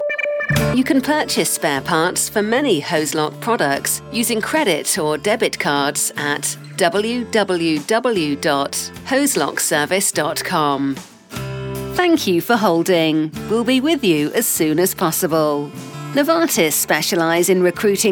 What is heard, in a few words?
On Hold; Voice-over demos; on hold voices